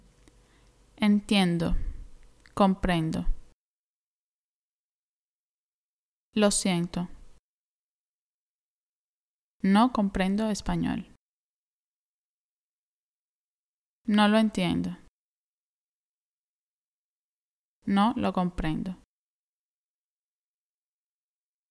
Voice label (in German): Hörprobe A1 Spanisch Reintext schnell